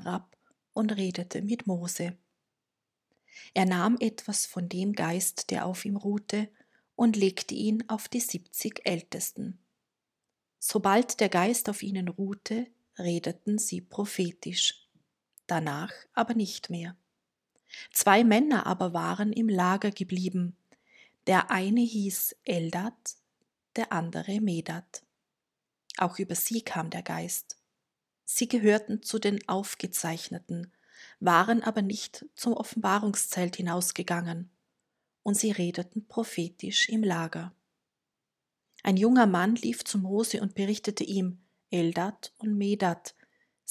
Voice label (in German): Lesung; die vollkommenheit gottes